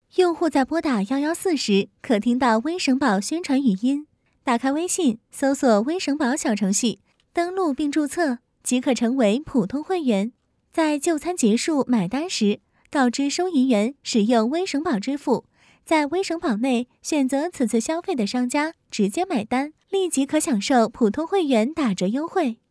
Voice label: 年轻解说

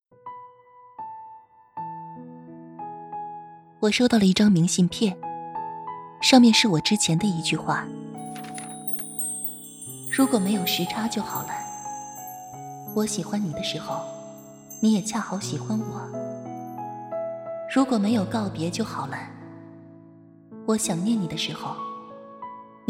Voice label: 深情温暖

